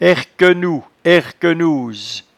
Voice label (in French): "herquenou", patois jura